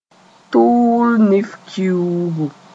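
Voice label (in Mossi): "tʋʋl-nif kiuugu", Mooré; April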